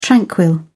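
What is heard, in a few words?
"tranquil", British English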